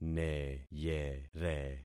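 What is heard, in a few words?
"neyere", concatenated syllables; Affixing experiment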